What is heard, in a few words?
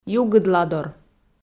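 "югыдладор", Komi, female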